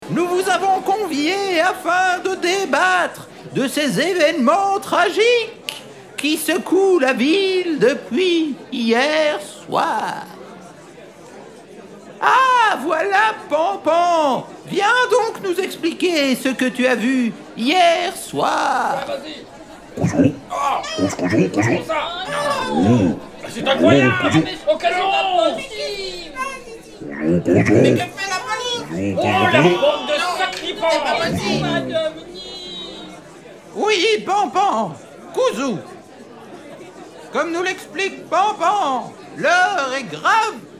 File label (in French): NARRATION